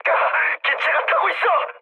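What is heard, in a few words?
Korean on fire mood med; speech; War Thunder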